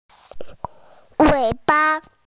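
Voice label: "wei ba" Chinese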